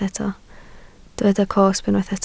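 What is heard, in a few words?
"cosb" Welsh, spoken word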